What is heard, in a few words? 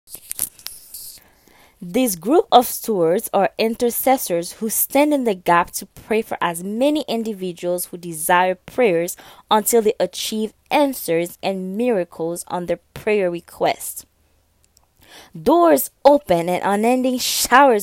Prayer Team English